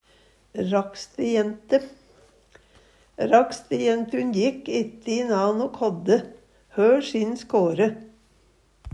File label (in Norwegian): rakstejente, Numedalsmål; Rakstejentun jekk ette inan o kådde hør sin skåre.